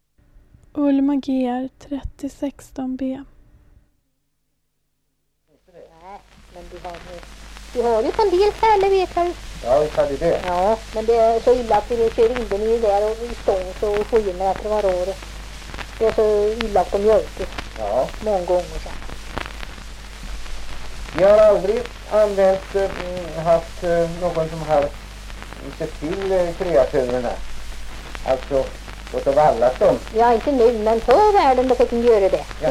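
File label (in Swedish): Östra Ryd Östergötland, dialekter; Folkminnen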